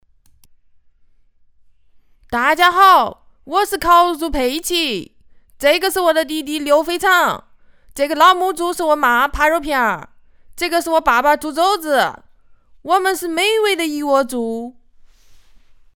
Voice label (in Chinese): 方言版; 小猪佩奇方言版